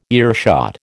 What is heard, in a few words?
"earshot", American